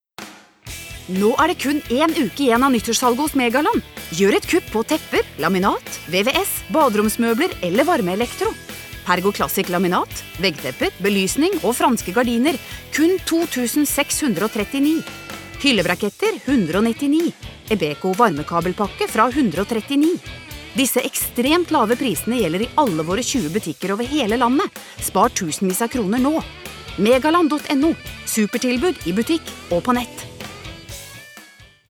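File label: Norwegian hard sell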